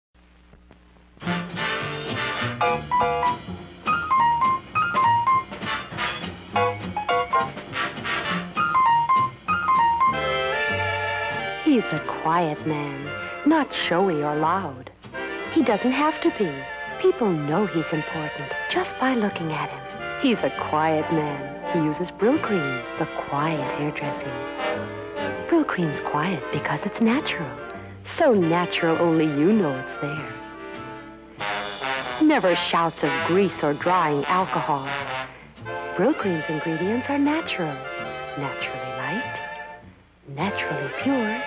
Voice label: commercial; Brylcreem; 1960s

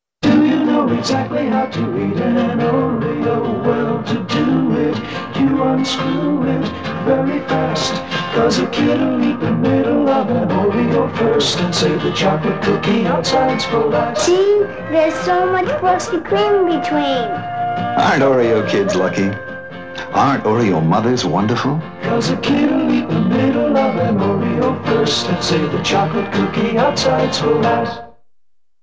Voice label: TV Commercials; Oreos

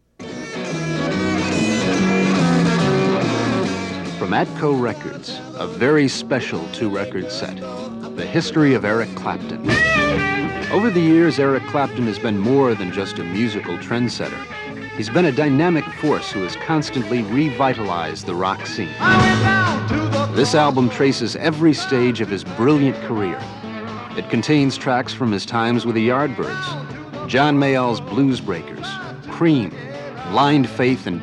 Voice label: Radio Commercials; 1972

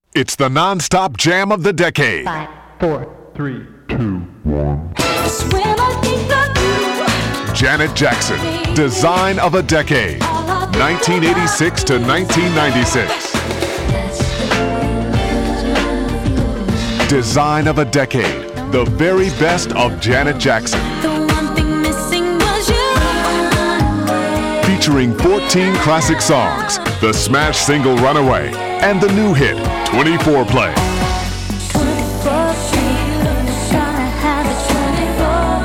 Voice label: Radio Commercials

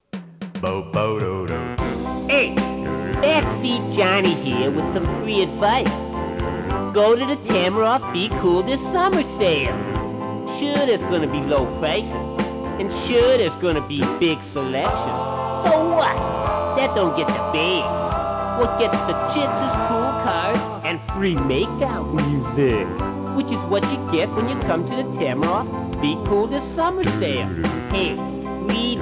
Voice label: Radio Commercials; Tamaroff Buick

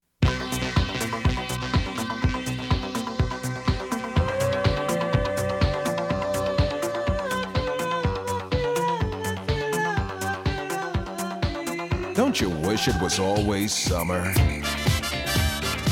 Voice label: Radio Commercials

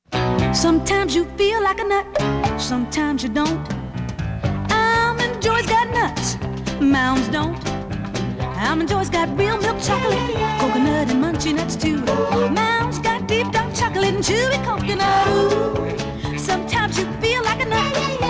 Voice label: Radio Commercials; Almond Joy

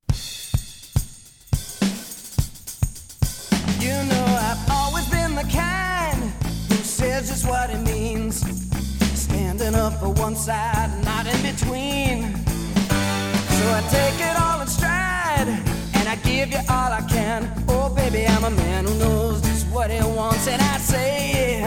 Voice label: Radio Commercials Beer; Pabst Blue Ribbon

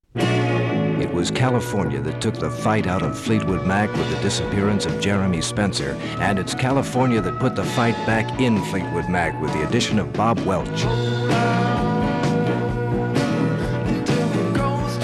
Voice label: Radio Commercials LP; 1972

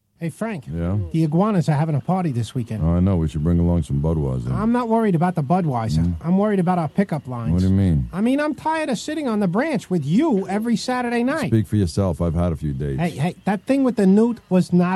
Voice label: Radio Commercials; Budweiser Beer; 1999

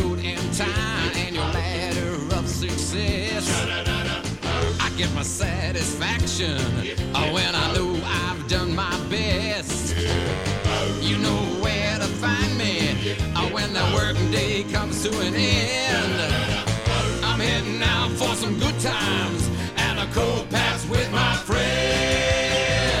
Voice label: Radio Commercials Beer; Pabst Blue Ribbon

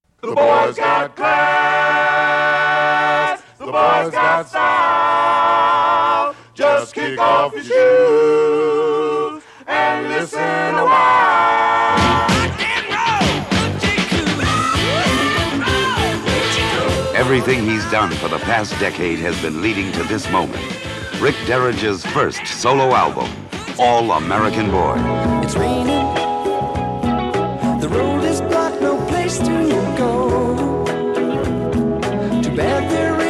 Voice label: Radio Commercials LP; 1973

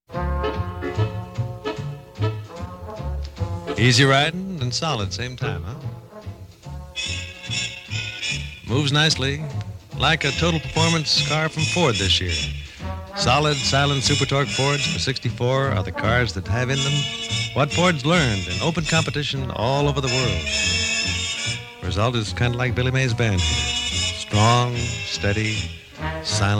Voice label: Radio Spot; Ford; 1964

